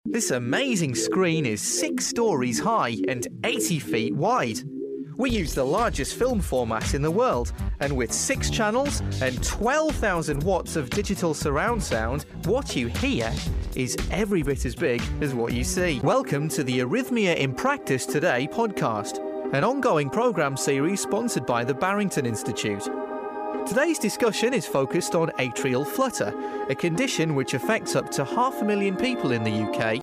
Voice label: male british voice over upbeat, english british

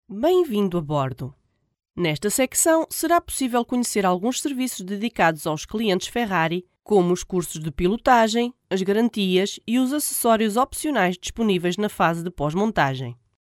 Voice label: female portuguese voice over sales; portugal

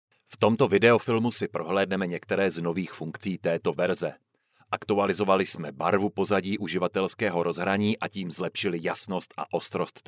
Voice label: male czech voice over narrative

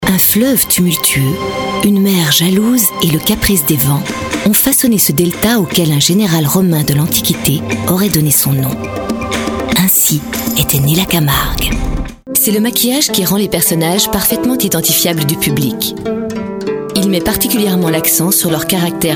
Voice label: female french voice over sales, france

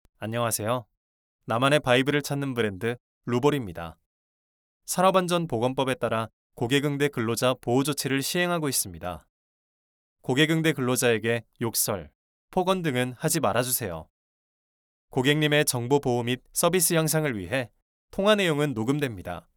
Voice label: male korean voice over narrative

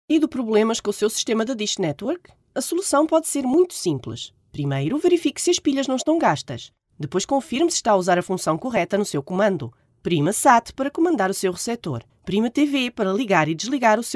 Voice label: female portuguese voice over upbeat, portugal

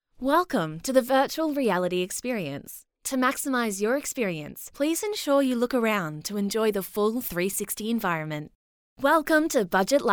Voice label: female australian voice over narrative

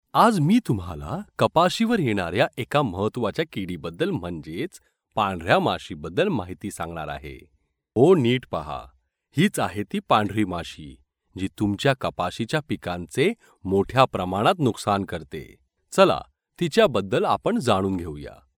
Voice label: male marathi voice over character

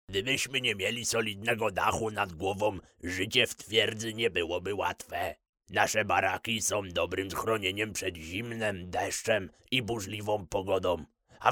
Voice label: male polish voice over talent character

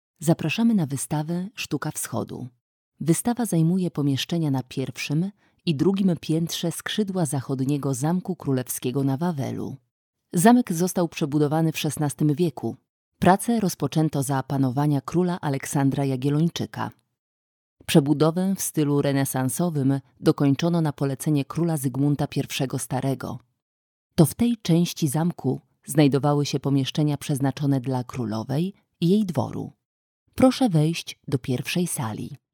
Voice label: female polish voice over narrative

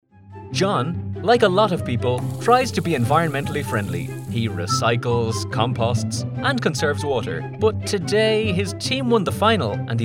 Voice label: male irish voice over character

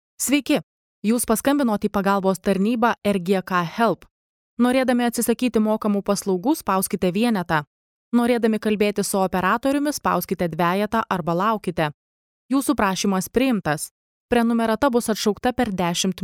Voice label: female lithuanian voice over narrative

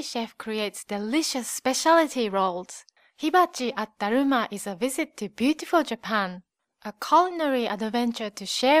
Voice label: female japanese voice over english

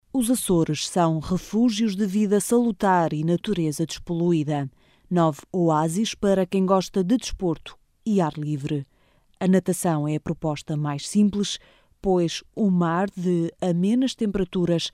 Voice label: female portuguese voice over narrative, portugal

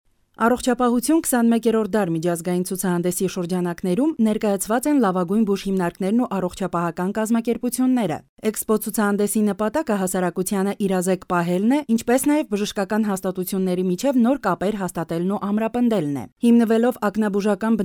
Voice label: female armenian voice over narrative

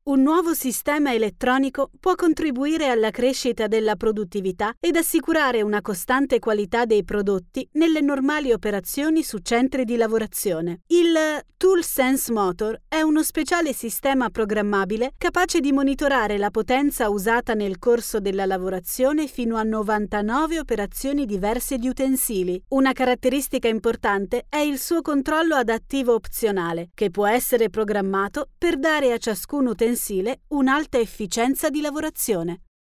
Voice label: female italian voice over narrative

